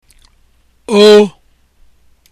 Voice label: o letter, igbo; Oke; Rat